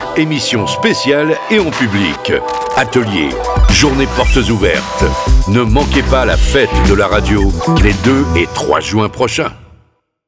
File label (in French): spot; Fete de la radio 10sec